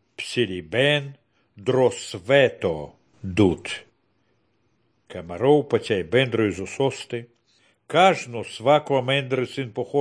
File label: good news baltic romani